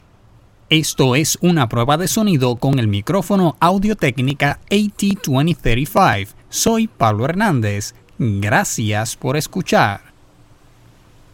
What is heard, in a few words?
portable vocal booth test unprocessed